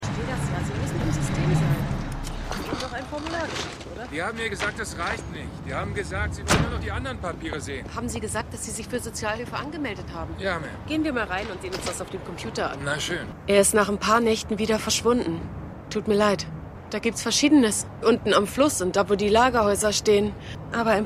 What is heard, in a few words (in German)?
Sozialarbeiterin Mann; The Wire